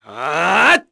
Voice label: Casting, kr; Neraxis; kingsraid; voices heroes

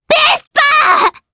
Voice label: Vox, jp; Viska; Vespa; kingsraid

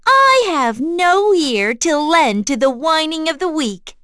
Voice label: vox, en; kingsraid; voices heroes

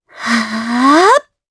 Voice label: Vox Casting jp; Yuria; kingsraid

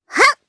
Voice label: Jump, jp; Hanus; kingsraid

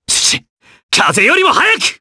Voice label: Vox Skill jp; kingsraid

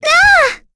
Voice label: Lavril Vox Attack, voices heroes en; kingsraid-audio

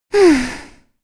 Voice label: Vox Think, kr; Kirze; kingsraid

